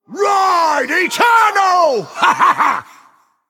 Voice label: Male Human Play